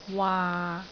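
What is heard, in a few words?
"waa6", Cantonese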